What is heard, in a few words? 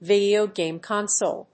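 "Video game console", English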